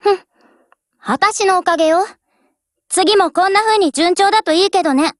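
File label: WIN Japanese, Voice; GF Alarm; AK74U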